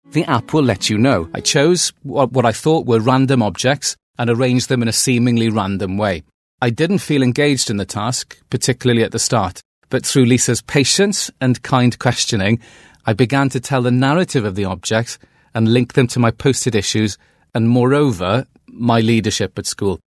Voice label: welsh male welsh accent roleplay